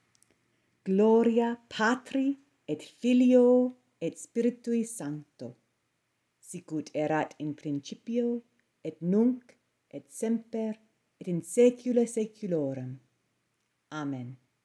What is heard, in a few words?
Ecclesiastical Pronunciation; Gloria patri; Glory to the Father, and to the Son, and to the Holy Spirit. As it was in the beginning, is now, and shall be always, unto the ages of ages. Amen.